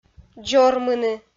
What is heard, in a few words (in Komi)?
"дзормыны", Komi